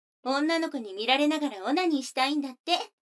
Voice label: 女の子台詞, ASMR

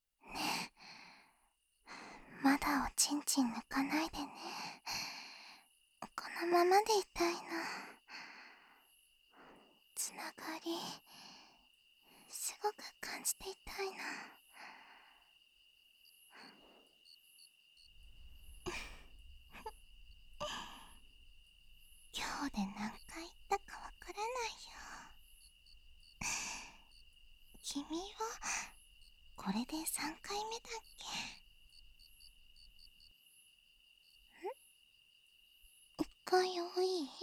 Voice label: 添い寝(癒)

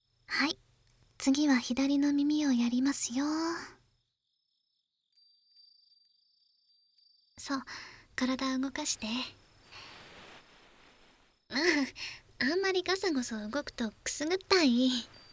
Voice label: 縁側で耳かき（左） 耳かき音無し

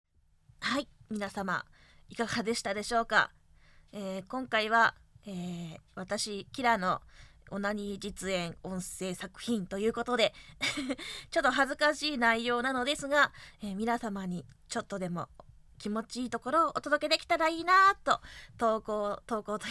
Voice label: フリートーク; オナニー実演音声

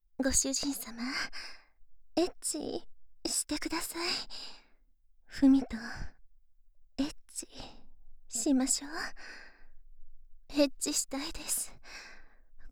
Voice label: エッチしよ はにかみ, 左, バイノーラル; ハーレムオナサポ 4人のメイドがご主人様のオナニーをお手伝いするバイノーラル～文、千曜、名月、莉桜～

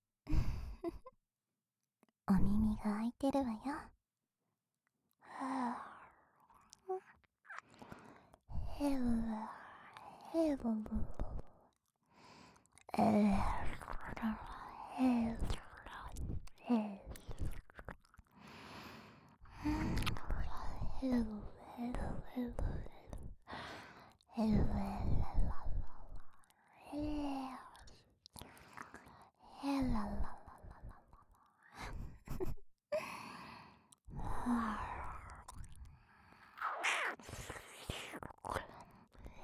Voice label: 耳舐め, ASMR; 即抜き！あずさ

